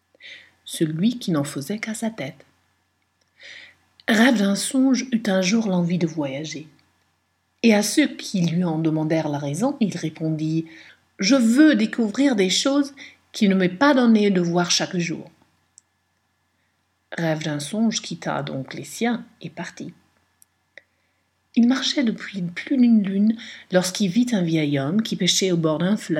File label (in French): Voix Francaise